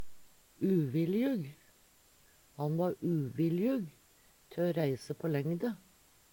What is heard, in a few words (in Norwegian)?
uvilljug, Numedalsmål; Han va uvilljug te o reise på lengde.; Adjektiv